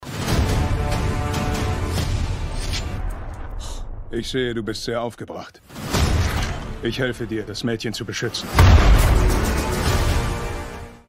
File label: Trailer German Deutsch; TERMINATOR 6 DARK FATE Trailer 2; T-800 - Arni